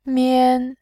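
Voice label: "mian", Mandarin, tone 1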